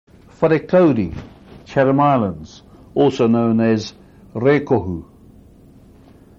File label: "wharekauri", Maori